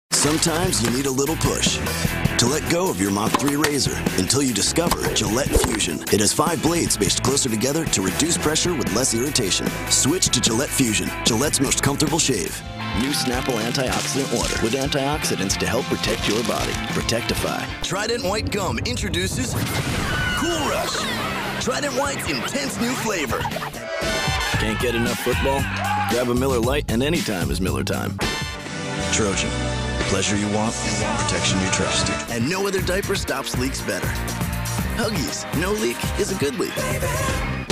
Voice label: VO Commercial; Commercial Reel